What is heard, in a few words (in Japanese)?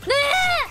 ねぇ, 情けない声